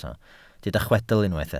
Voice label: "chwedl", Welsh

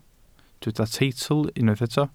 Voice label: "teitl", Welsh

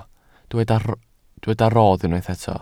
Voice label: "rodd", Welsh

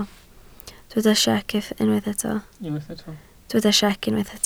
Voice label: "siec", Welsh

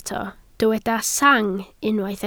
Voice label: "sang", Welsh

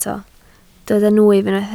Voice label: "nwyf", Welsh

